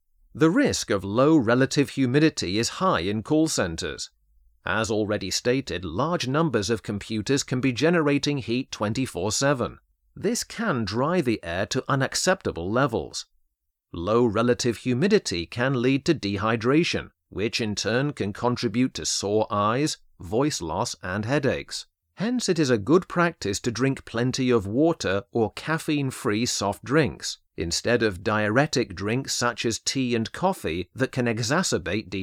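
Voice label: British English, E-Learning; GoLocalise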